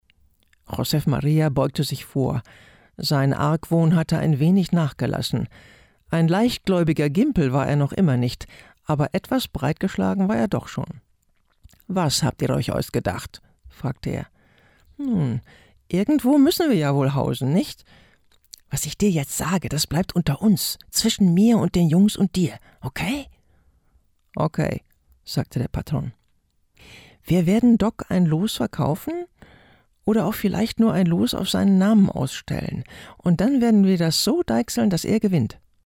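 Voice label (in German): Hörbuch; Steinbeck; Donnerstag; Mack Und Der Patron; LOFT VOICES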